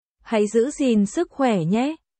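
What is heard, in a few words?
Vietnamese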